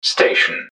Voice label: "station", english man; subway announcers; MetrostroiAddon